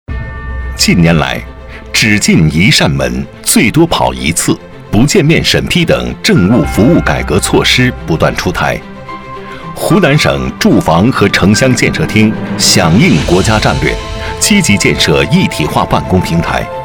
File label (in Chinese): 男 汇报